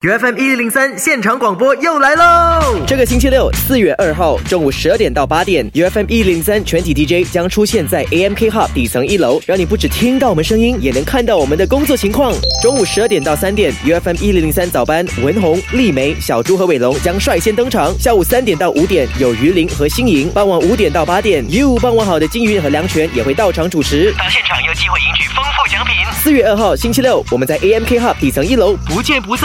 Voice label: Mandarin Trailer, Male; China; AMK Hub OB

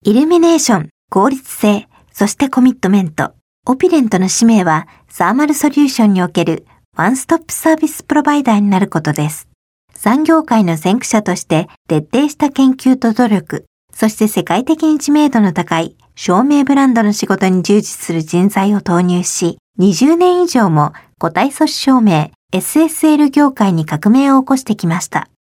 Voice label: Japanese Corporate VO, Female

